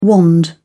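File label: "wand", English, British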